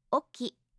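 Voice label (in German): "oki", japanische Mädchennamen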